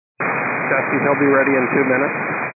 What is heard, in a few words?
122 725MHz, scanner radio